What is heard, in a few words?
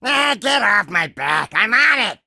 Male Imp Play